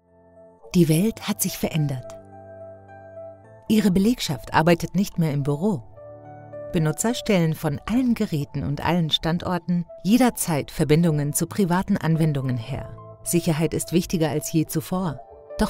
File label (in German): DE Imagefilm; Zscaler